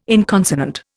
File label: "inconsonant", Indian